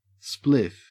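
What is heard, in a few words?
"spliff", Australian English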